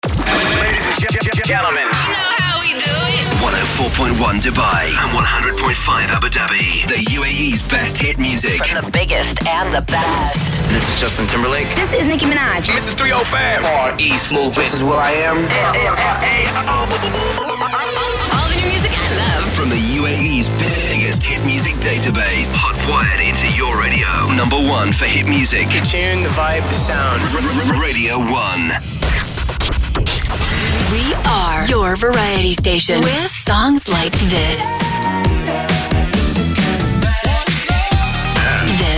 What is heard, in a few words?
Imaging